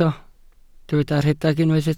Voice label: "rhedeg", Welsh

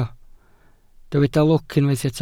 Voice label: "lwc", Welsh

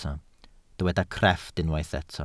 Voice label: "crefft", welsh

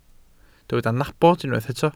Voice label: "nabod", Welsh

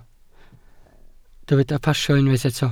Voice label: "pasio", Welsh

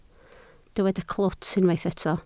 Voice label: "clwt", Welsh

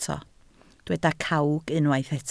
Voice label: "cawg", Welsh

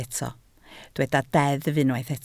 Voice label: "deddf", Welsh

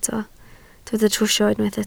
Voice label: "trwsio", Welsh

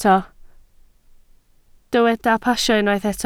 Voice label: "pasio", Welsh

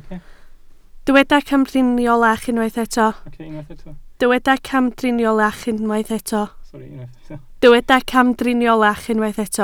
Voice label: "camdriniolach", Welsh

